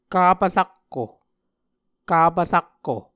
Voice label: "kapv rakko", Muscogee; Mvskoke Words with Repeated Consonants; A Dictionary of Creek/Muskogee; SIL International